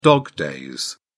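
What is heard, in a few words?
"dog-days", British English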